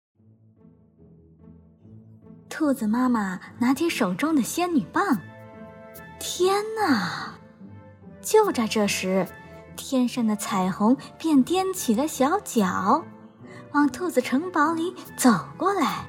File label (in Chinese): 儿童故事配音